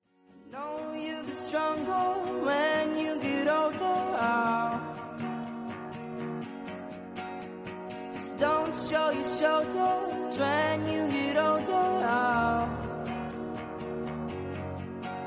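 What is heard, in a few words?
male; Aniversário de Genro – Voz Masculina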